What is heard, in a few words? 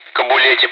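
Speech Sound Russian Common air traffic control Callsign; ATC; Kobuleti; DCS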